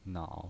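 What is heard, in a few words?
"nao", pinyin voice, Mandarin Chinese, third tone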